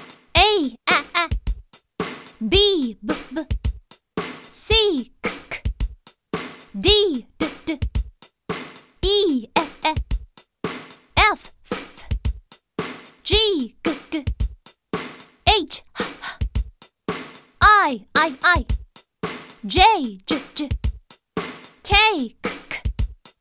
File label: Alphabet Jingle Food Say The Key Words; Unit 3